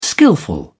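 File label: "skilful", British English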